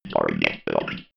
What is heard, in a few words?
robot voice target locked